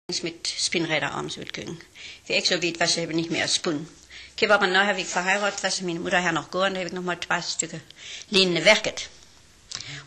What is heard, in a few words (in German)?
Ostfaelisch, Niederdeutsch